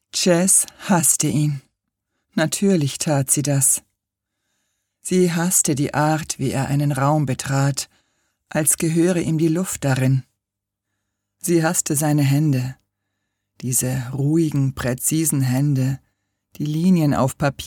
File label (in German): Hoerprobe, sprecher